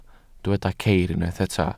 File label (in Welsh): "ceir", Welsh